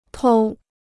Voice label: "偷", Chinese